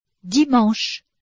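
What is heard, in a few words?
"dimanche", French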